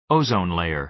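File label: "ozone layer", American English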